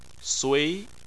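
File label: "sui", Chinese, second tone; Chinese Surnames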